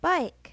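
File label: "bike", English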